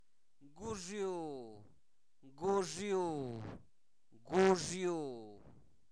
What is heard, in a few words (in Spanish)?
Zapoteco Albarradas, santo domingo albarradas; polvo; fenomenos naturales; INALI